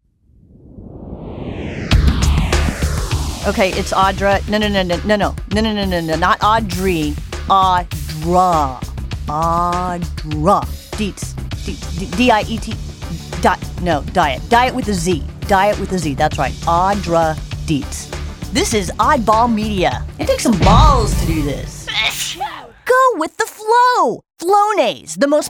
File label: Voice Over Demo